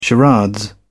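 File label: "charade", British English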